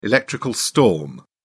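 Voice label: "electrical storm", British English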